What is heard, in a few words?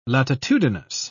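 "latitudinous", British English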